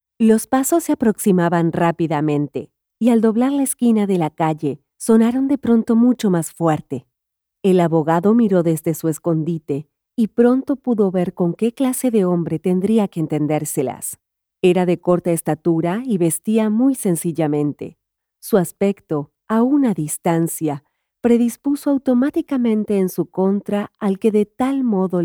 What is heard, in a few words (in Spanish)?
AudioBook Latino Neutro